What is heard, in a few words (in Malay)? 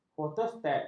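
"fotostat", Malay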